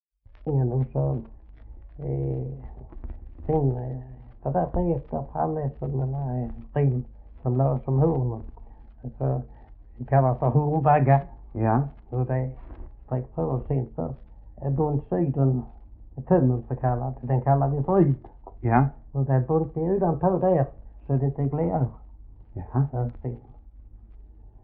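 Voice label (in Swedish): Oderljunga Skåne, dialekter; Folkminnen; Svenska sagor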